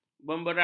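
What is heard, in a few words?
"bemberang", Malay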